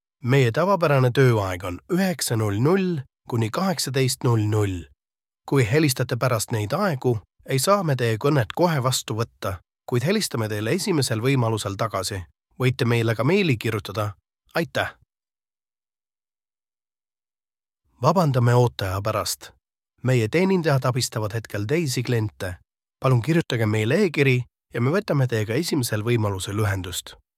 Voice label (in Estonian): Eesti telefonihaal, meeshaaled